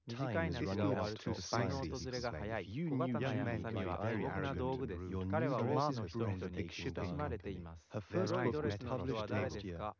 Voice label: male inst mix; SiSEC11; underdetermined